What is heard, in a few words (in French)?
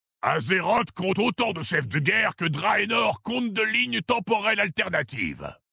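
French Male Blague; OrcMaghar; Les orcs mag’har sont la future race alliée de Battle for Azeroth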